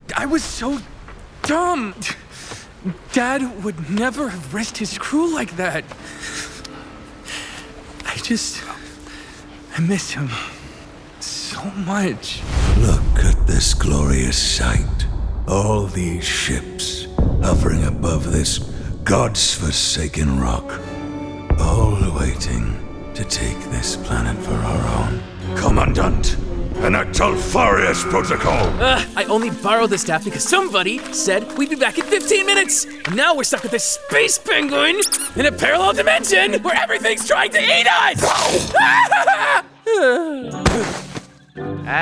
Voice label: Animation